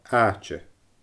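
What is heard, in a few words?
"aaite", Scottish Gaelic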